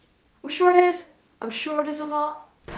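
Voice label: Voice Acting Spanish Female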